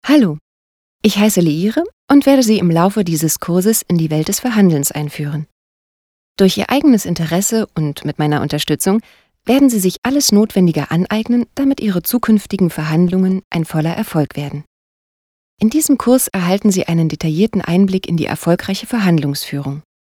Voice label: deutsch frauen elearning

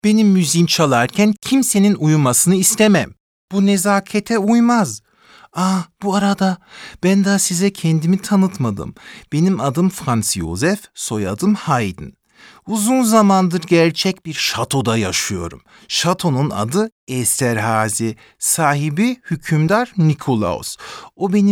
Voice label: hoerspiel, tuerkisch

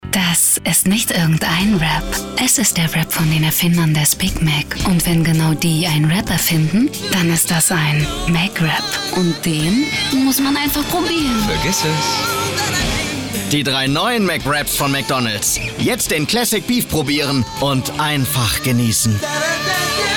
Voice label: werbung, deutsch frauen

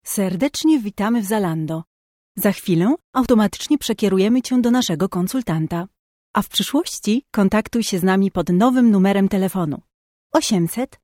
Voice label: pl telefon, polnisch; sprecher

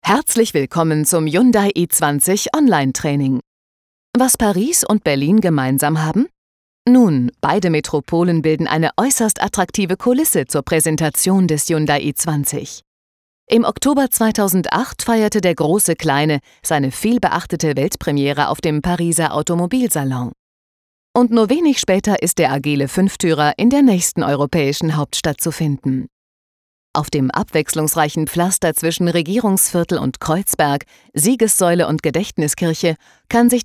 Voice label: deutsch frauen elearning

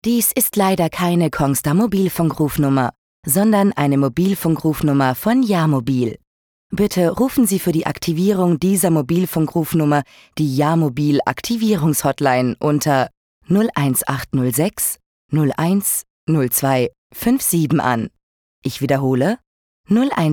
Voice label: deutsch frauen telefon; sprecher

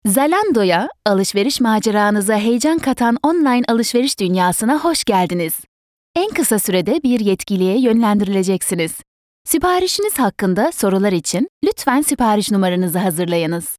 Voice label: tr telefon, tuerkisch

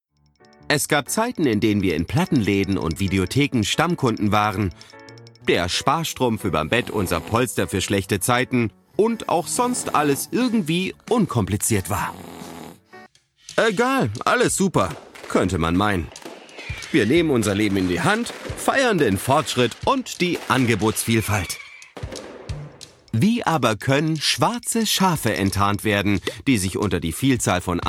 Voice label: de vo, deutsch maenner; sprecher

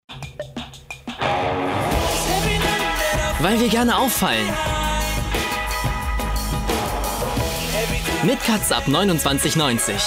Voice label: Werbung

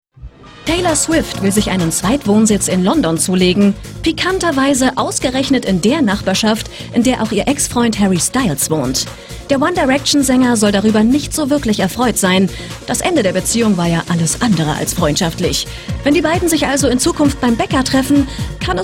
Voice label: tv, deutsch frauen; sprecher

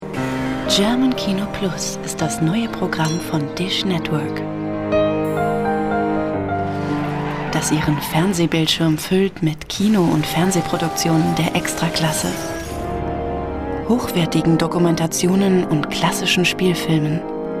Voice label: de werbung, deutsch frauen; sprecher

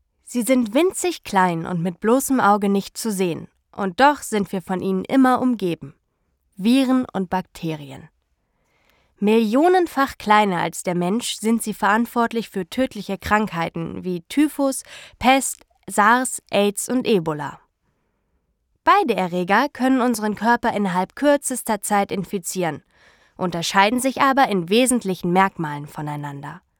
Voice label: elearning, deutsch frauen

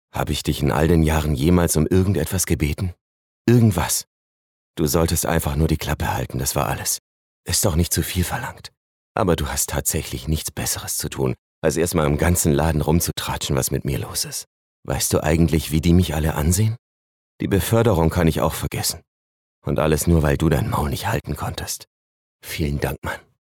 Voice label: de synchron, deutsch maenner; sprecher

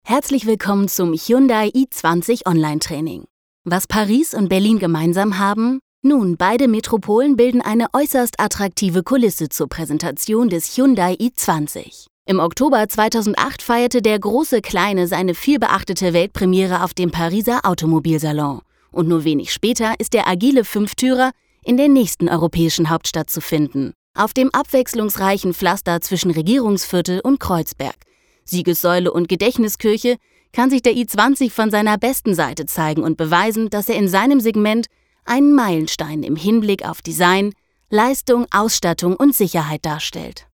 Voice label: elearning, deutsch frauen

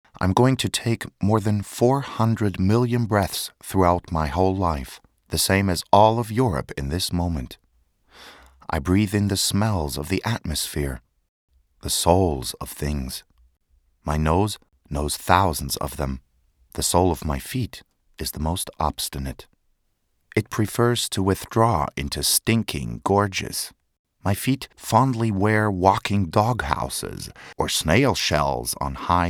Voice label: us movie, englisch; sprecher

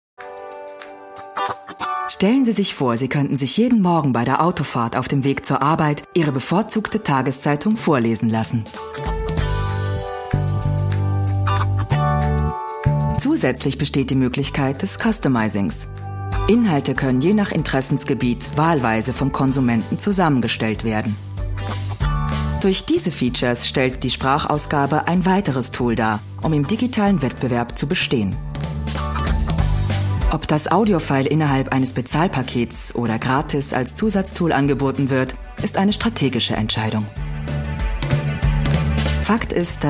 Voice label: werbespot, deutsch frauen; sprecher

